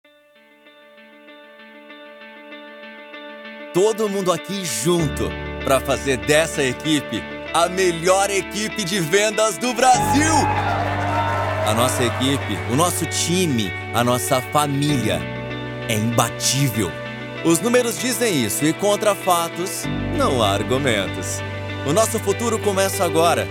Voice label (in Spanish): MOTIVACIONAL EMOCIONAL